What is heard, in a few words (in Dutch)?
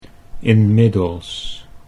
"inmiddels", Dutch